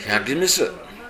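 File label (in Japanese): "yagimisu", 南山形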